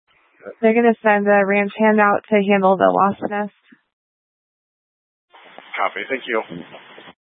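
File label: Incident Tactical 3, Scanner audio recording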